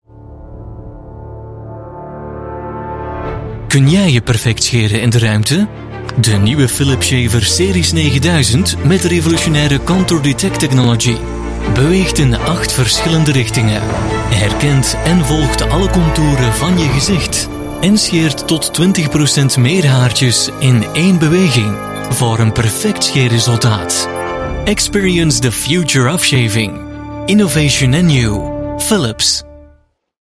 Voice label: Flemish TV Ad, Flemish Male; Philips Series 9000